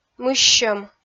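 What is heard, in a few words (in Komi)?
"мыссьӧм", Komi, female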